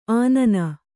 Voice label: "ಅನ್ನ", Kannada

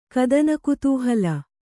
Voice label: "ಕದನಕುತೂಹಲ", kannada

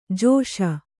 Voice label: "ಜೈಸು", kannada

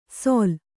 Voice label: "ಸೊಲ್", Kannada

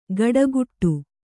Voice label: "ಕಟಗುಟ್ಟು", Kannada

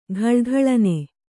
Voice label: "ಘಲಘಲನೆ", Kannada

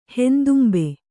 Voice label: "ಹೆಂದುಂಬೆ", Kannada

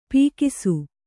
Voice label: "ಪೀಕಿಸು", kannada

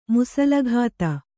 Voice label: "ಮುಸಲಘಾತ", Kannada

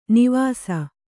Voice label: "ನೇವಸ", Kannada

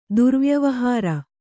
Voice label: "ದುರ್ವ್ಯವಹಾರ", kannada

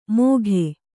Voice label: "ಮೋಘೆ", Kannada

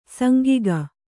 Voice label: "ಸಾಂಗಿಕ", Kannada

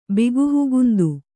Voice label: "ಬಿಗುಹುಗುಂದು", Kannada

